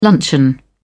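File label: "luncheon", British English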